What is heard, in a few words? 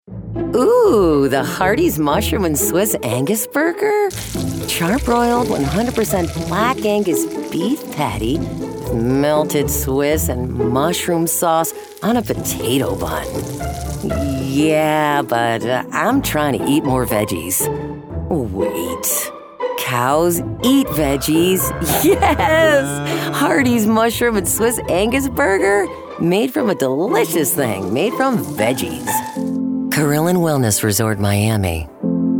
Commercial